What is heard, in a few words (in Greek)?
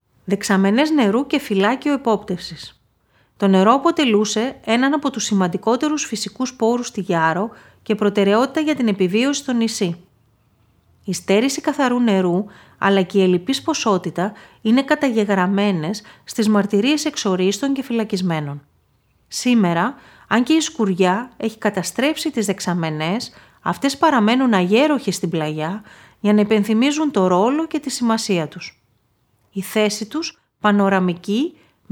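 Δεξαμενές Νερού, Ηχητική ξενάγηση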